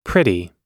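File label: "pretty", American English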